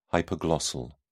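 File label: "hypoglossal", British English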